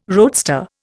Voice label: "roadster", Indian